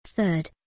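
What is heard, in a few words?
"third", English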